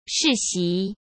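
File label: "世袭", Mandarin Chinese; hsk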